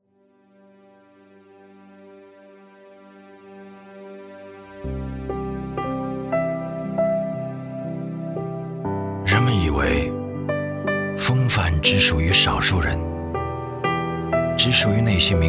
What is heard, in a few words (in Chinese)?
男 广告 国语男声配音; 凯迪拉克 风范